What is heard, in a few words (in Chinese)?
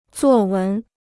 "作文", Chinese